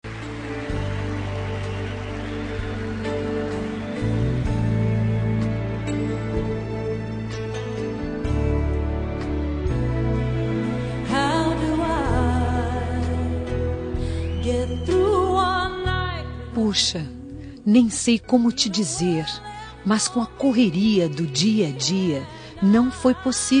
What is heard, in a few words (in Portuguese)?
atrasado fem, Aniversário Atrasado – Voz Feminina; Telemensagens para todas as ocasiões